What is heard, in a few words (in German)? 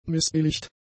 "missbilligt", German; adjectives